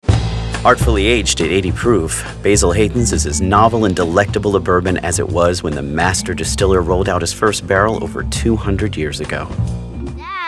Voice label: Commercial